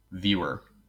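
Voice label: "viewer", American English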